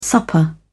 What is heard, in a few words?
"supper", en uk